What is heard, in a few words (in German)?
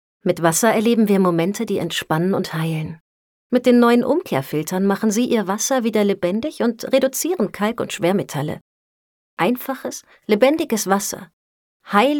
Werbung Wasserfilter